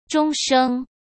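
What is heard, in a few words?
"终生", Mandarin Chinese; chinese-flashcards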